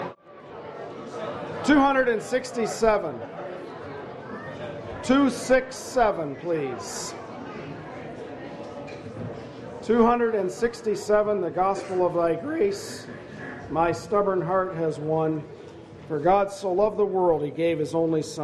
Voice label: announce hymn; 2015Conference